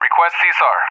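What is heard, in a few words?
"Request CSAR", English, USA; DCS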